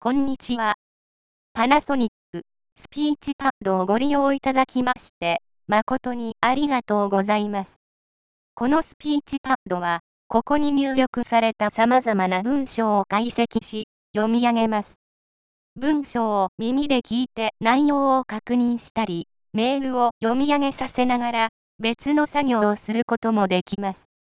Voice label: Japanese